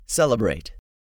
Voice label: "CELEBRATE", English; 3000 words

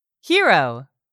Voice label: "hero", English; 3000 words

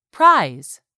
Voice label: "PRIZE", English; 3000 words

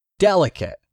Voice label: "delicate", English; 3000 words

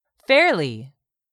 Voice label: "fairly", English; 3000 words

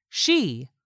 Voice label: "SHE", english; 3000 words

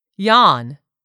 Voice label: "yawn", English; 3000 words

